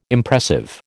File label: "Impressive", American